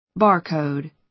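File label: "bar code", American English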